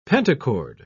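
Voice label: "pentachord", English, British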